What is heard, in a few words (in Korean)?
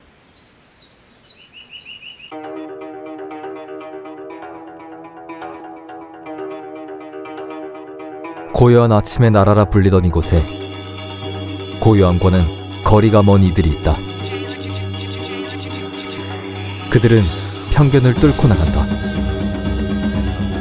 광고 스마트 진중한; 기아 K8